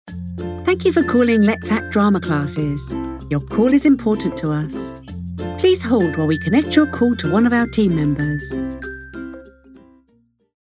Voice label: First Greeting, IVR; LovelyVoice; Lets Act